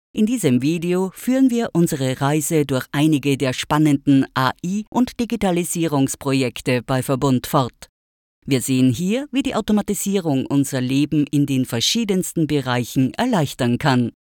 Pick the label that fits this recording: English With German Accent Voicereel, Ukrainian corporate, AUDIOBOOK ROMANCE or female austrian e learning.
female austrian e learning